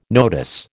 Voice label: "notice", American